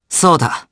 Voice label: Vox, jp; Fluss; kingsraid